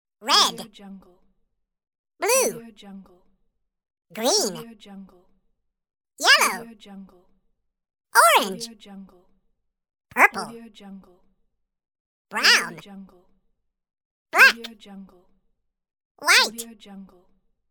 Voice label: cartoon voice colors pack

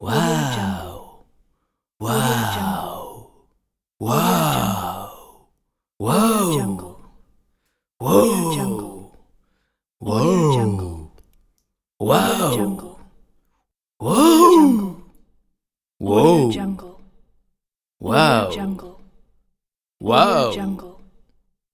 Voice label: male wow

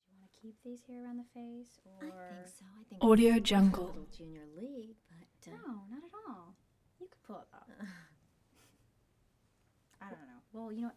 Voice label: group chatting constant salon gossipping

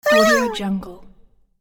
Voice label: game voice electronic pain

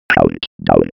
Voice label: science fiction ai computer voice

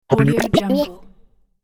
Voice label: funny robot voice glitch

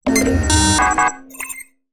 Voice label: hud computer phrase

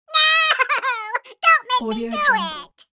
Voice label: cartoon cute no dont make me do it

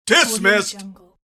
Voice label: military command dismissed

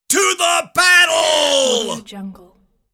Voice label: male voice battle cry to the battle

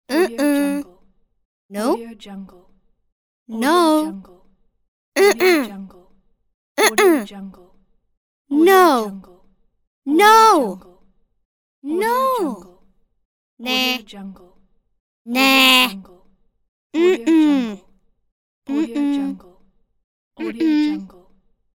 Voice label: girl negation expressions pack

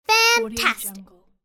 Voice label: "fantastic", female child

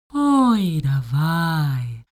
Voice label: human vocal female talk elfish; smartsoundfx

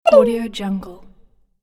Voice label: cartoon voice game fun

